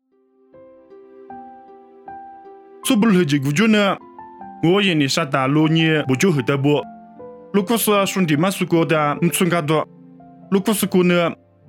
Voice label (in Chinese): 凉山彝语; 地中海叙拉古